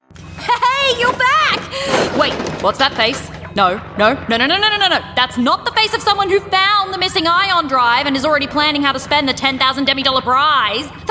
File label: australian voice character